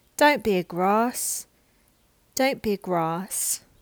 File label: Cockney